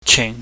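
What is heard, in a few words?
"ching", Cantonese, low falling tone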